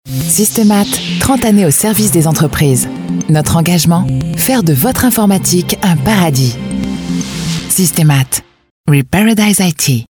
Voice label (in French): Spot voix; Systemat